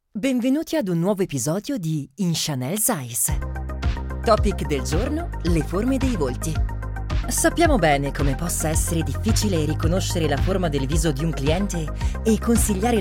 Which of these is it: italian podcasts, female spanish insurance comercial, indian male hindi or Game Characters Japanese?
italian podcasts